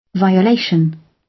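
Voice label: "violation", British; Crime and punishment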